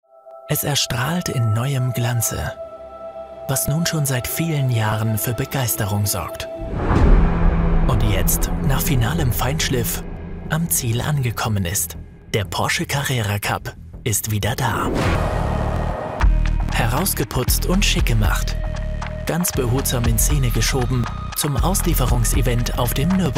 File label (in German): Sprecher Off TV Beitrag